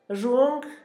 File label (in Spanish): calambre, Zapoteco, Asunción Ocotlan; Enfermedades; INALI; Haspelmath